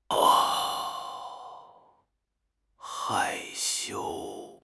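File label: "hai4 xiu1", Chinese; HSK4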